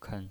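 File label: "ken", neutral tone, pinyin voice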